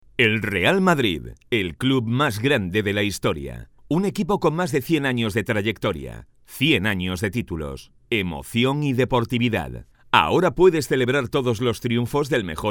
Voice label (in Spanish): Teletienda Reloj; Real Madrid